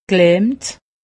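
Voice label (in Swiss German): "gelahmt", Alsatian; medecine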